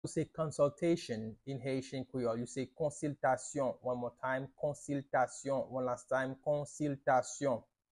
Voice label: How to say Consultation in Haitian Creole Konsiltasyon pronunciation by a Haitian Creole teacher